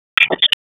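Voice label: Sheriff's Office Dispatch 1, p25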